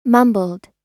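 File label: "mumbled", American English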